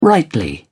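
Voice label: "rightly", British English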